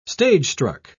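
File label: "stagestruck", British English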